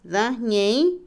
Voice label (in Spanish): perezoso, Otomí, mujer; Cuerpo; INALI